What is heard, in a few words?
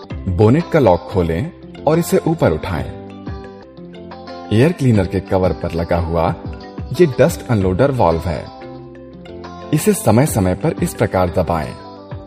Explainer VO Sample